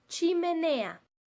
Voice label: "chimenea", Espagnol